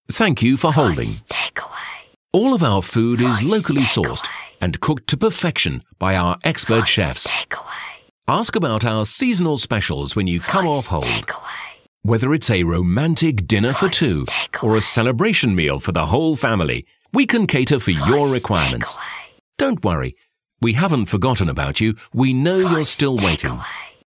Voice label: Restaurants Rich Male